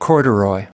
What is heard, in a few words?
"corduroy", US